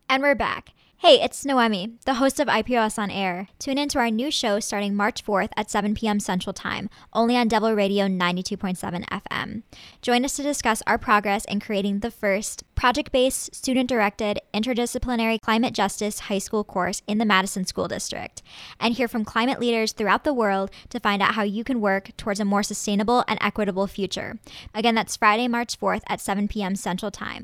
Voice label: Ad Voice; Apprentice